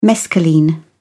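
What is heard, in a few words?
"mescaline", British English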